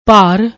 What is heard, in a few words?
"bhaar", Punjabi